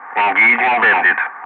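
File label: "engaging bandit", English; Ka-50; Wingman; Messages; Speech; DCS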